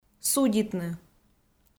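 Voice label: "судитны", Komi-Zyrian, female; audio dict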